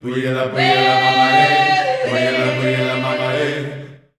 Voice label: male & female phrase, Voices Of Africa, phrases & chants